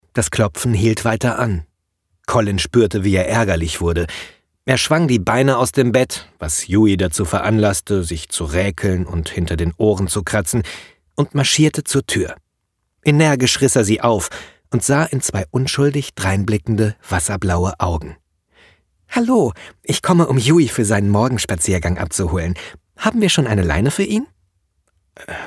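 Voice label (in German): hoerbuch, Sprecher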